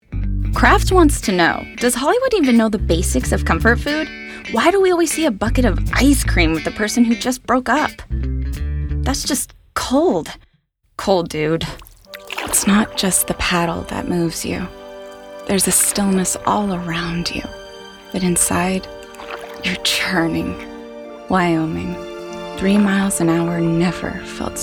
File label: Commercial Voice